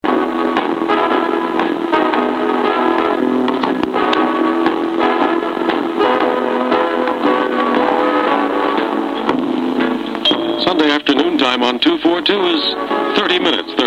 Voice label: radio scotland apology no fireside chat